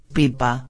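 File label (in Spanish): "pipa", Spanish; ortografia; heterosemanticos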